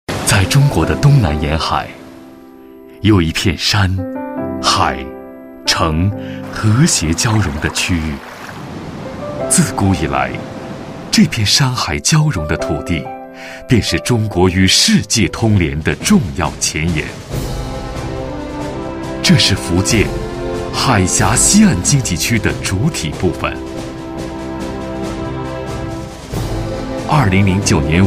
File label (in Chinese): 男 专题 柔和记录风格, 国语男声配音; 福建馆